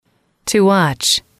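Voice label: "to watch", English, female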